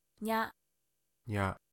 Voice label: "nya", Japanese

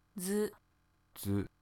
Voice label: "dzu", Japanese

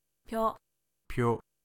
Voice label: "pyo", Japanese

